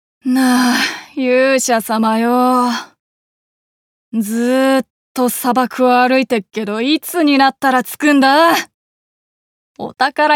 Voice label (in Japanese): ダンジョンを進む少年